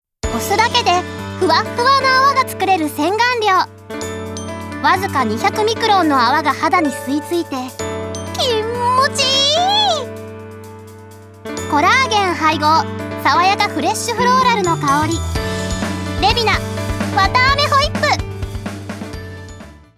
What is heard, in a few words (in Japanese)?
洗顔料ＣＭナレーション